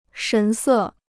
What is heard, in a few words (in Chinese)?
"神色", Chinese